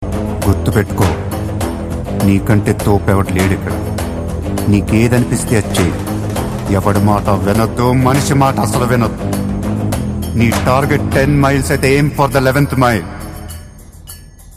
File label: businessman dialogue; ringtones